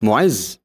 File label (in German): "moez", arabische jungennamen